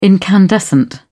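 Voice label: "incandescent", British English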